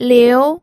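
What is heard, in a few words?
"liu", Mandarin Chinese, second tone; pinyin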